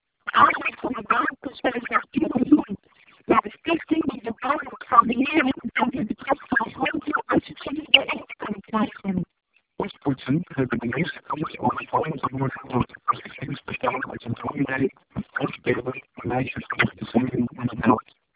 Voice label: dutch, speech samples